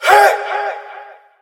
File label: "hey", Voice